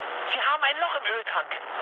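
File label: German damaged oil mood med, speech; War Thunder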